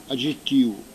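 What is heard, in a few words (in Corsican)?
"aggettivu", Corsican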